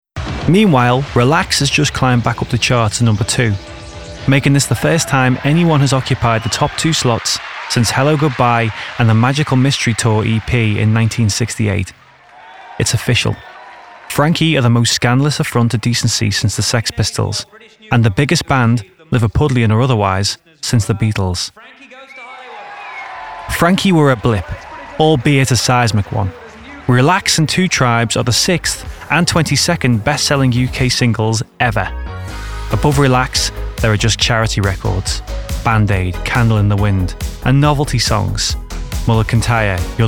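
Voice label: documentary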